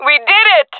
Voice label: round won, jungle fem; vo; Counter Strike Model Pack; CS:GO

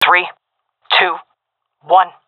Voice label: countdown, seal fem; vo; Counter Strike Model Pack; CS:GO

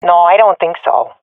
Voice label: disagree, swat fem; Counter Strike Model Pack; CS:GO

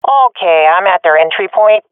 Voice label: at enemy spawn, swat fem; vo; Counter Strike Model Pack; CS:GO

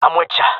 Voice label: following friend, jungle male; player vo; Counter Strike Model Pack; CS:GO

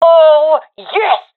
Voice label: round winning, professional fem; vo; Counter Strike Model Pack; CS:GO

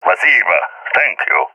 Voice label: thankful, balkan; balkan epic

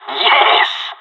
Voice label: on a roll brag, pirate; Counter Strike Model Pack; CS:GO

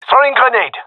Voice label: throwing grenade, gendarmerie male; vo

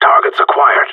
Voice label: radio enemy spotted; Counter Strike Model Pack; CS:GO; gsg9

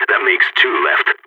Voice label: two enemies left, balkan; vo

